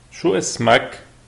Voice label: name, lebanese arabic